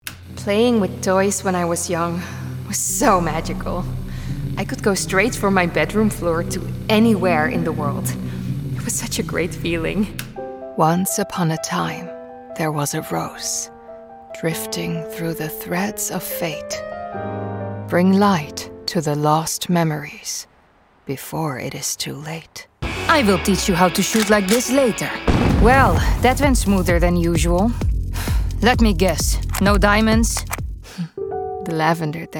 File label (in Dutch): Karakter NL-EU-Engels